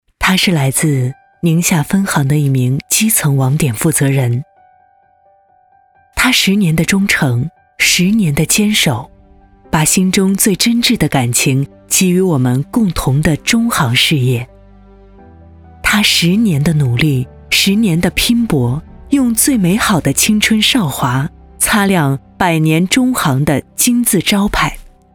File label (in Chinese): 女 专题片